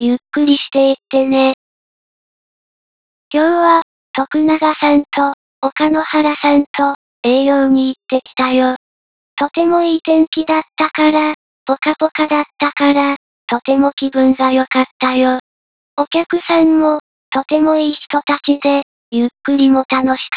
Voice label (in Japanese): ゆっくり